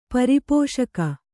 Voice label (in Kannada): "ಪರಿಪೋಷಕ", Kannada